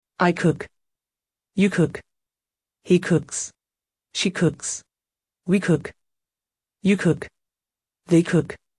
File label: cook affirmative